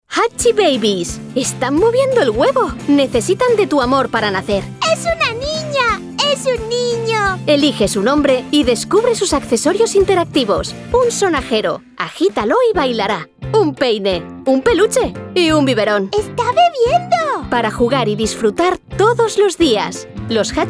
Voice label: spanish castilian commercial

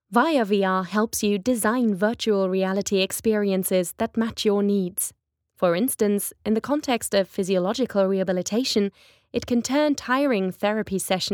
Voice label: English narration

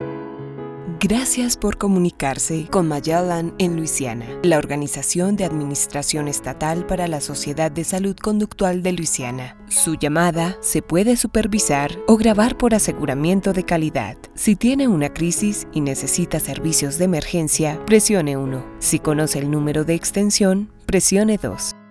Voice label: spanish latin american ivr

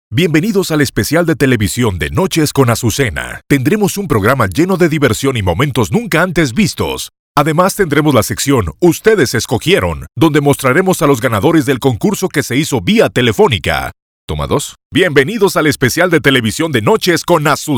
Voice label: spanish latin american corporate videos

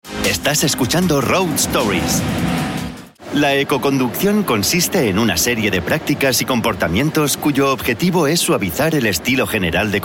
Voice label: spanish podcasts; Sou um talento profissional de locução em espanhol da Espanha e catalão.

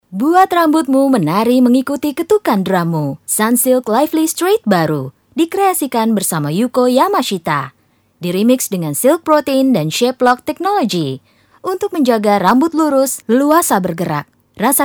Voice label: indonesian promos

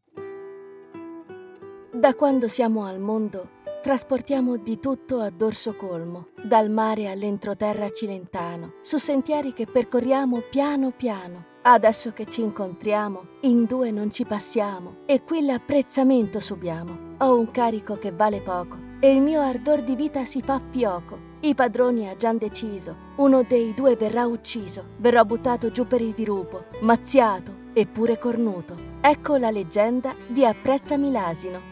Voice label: italian audio guides

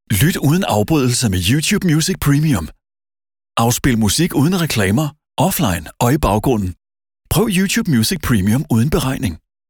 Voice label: danish promos

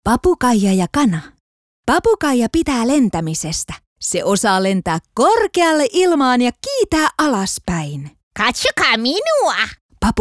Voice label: finnish animation

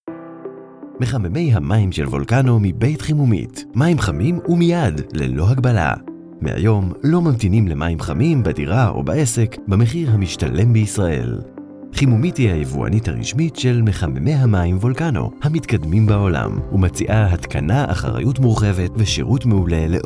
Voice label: Hebrew narration

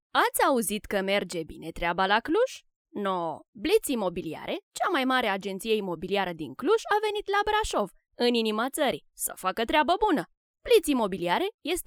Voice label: romanian commercial

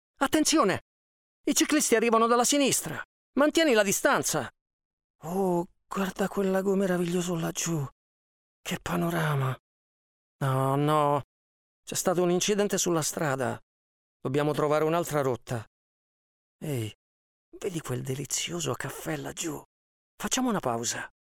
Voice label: italian podcasts

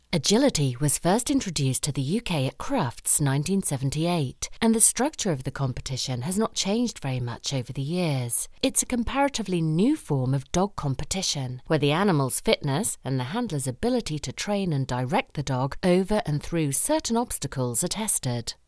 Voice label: english british documentaries

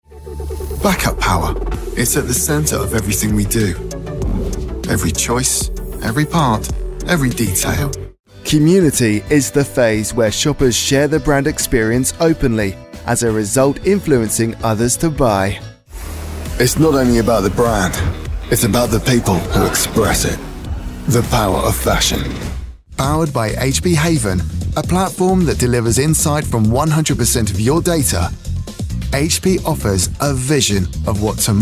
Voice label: english british narration

